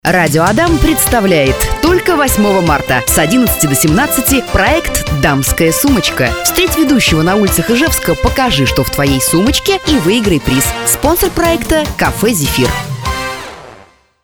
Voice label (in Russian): zhenskie diktor golosa